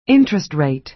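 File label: "interest rate", American English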